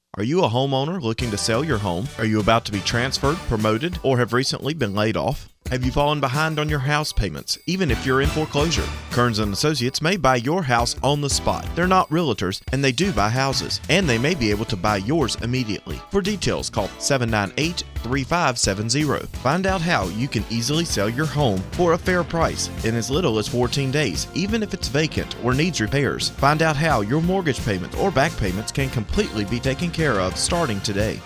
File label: Radio ad